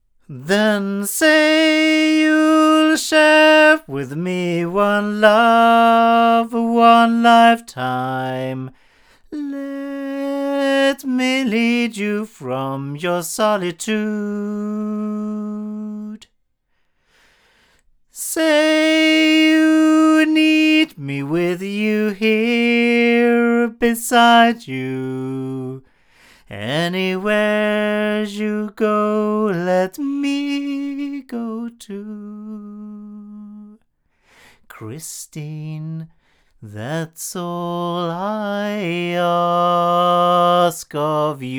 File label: LineAudio SM6i cardioid male